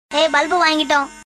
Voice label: Tamil Dialogue; Ben10